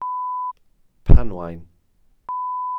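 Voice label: "panwaun", Welsh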